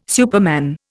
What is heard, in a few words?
"superman", Indian